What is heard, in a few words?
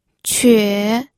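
"que", Mandarin Chinese, third tone; pinyin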